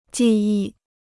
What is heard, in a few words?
"技艺", Chinese